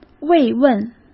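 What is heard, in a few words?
"慰问", Mandarin Chinese; hsk; chinese-flashcards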